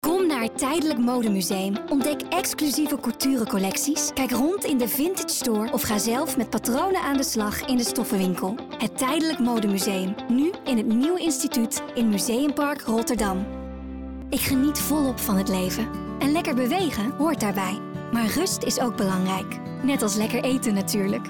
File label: Demo Commercials